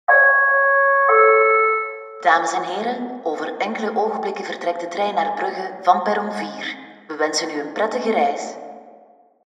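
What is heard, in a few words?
flemish announcements, female; flemish voice actors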